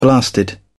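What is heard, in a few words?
"blasted", British English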